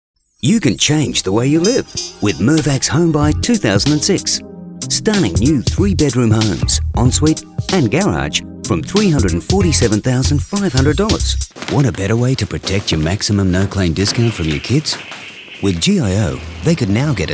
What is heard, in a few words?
soft